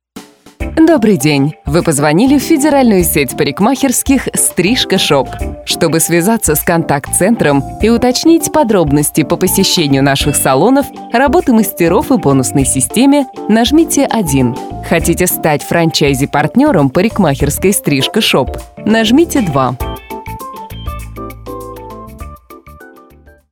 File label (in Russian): пример автоответчика